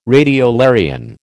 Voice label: "radiolarian", American

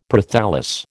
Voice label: "Prothallus", American

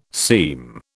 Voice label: "seem", British

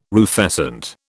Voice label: "rufescent", British

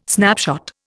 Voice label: "snapshot", Indian

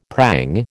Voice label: "prang", American

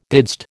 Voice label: "didst", American

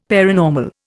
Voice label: "paranormal", Indian; Dictionary

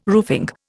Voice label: "roofing", Indian

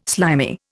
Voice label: "slimy", Indian

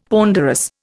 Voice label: "ponderous", Indian; Dictionary

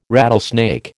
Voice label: "rattlesnake", American

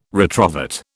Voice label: "retrovert", British

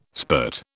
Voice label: "spurt", British

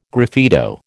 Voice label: "graffito", American

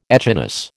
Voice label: "echinus", American

